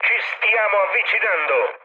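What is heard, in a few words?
Italian near waypoint mood med, speech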